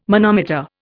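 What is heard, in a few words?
"manometer", Indian English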